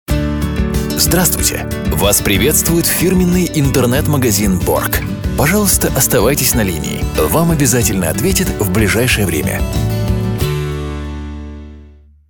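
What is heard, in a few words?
IVR Russian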